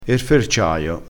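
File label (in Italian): "ferciaio", dialetto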